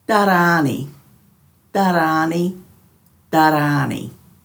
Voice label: "dharraani", Dhurga

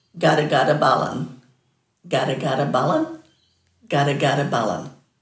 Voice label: "gadagadabalin", Dhurga

